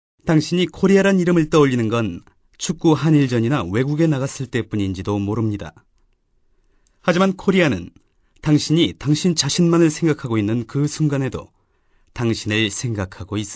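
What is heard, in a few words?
Korean male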